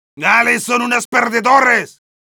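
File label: jeers, Spanish; Medic; "Dales son unos perdedores."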